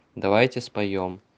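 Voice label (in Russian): Давайте споем, русский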